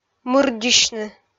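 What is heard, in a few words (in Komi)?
"мырддьысьны", Komi, female; kpv audio dict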